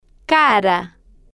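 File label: "cara", slow, spanish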